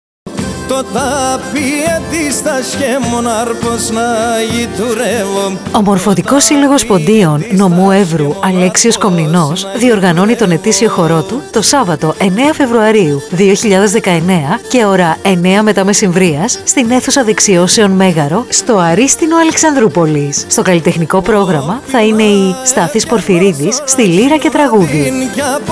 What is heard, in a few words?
Radio Spot; Xoros Radio